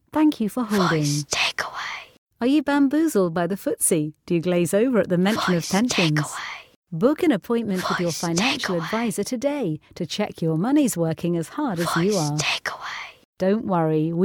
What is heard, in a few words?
Financial Advisers Rich Female